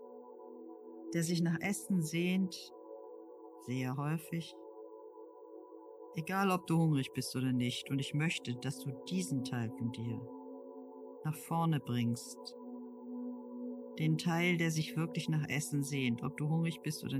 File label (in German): Innerer Dialog Meditation